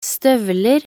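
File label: "støvler", Norwegian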